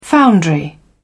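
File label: "foundry", English, British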